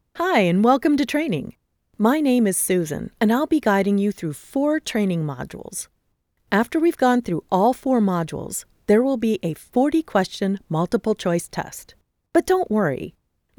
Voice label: eLearning Explainer